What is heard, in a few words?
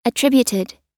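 "attributed" US English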